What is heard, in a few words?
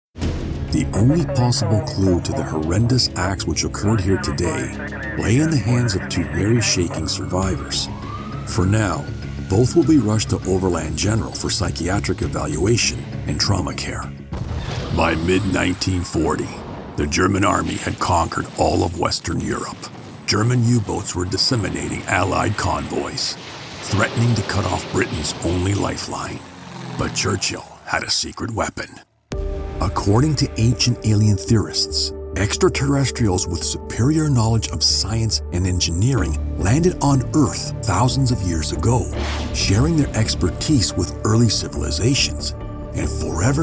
Narration